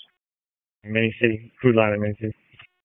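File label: Police Northeast District Dispatch; Raleigh; wake p25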